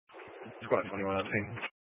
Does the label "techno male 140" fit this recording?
No